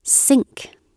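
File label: "sink" British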